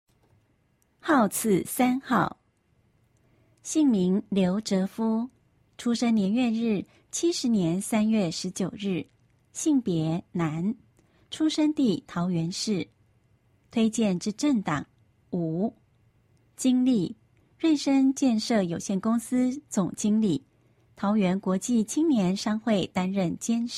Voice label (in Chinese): 國語; 有聲公報; 市議員